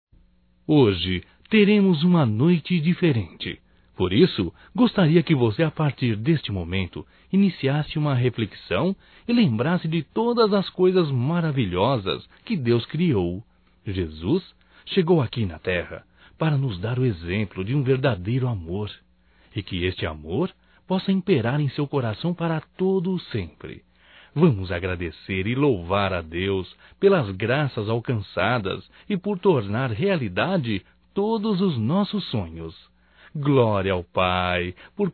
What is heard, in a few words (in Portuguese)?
Natal e Ano Novo Irmão Masculino; Telemensagens 2017